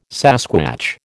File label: "sasquatch", American